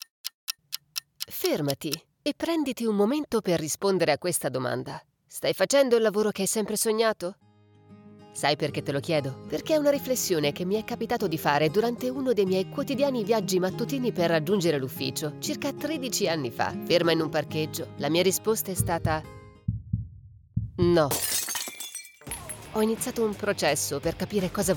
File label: Italian podcasts, italian voice actors